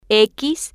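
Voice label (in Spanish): "x", spanish, female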